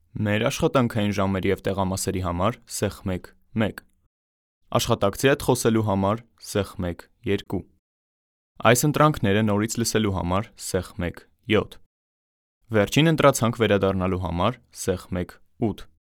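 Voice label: Armenian, male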